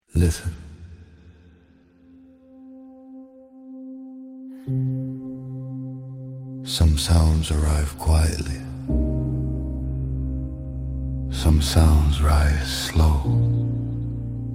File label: The Piano Is Rising Amapiano Spoken Dj Intro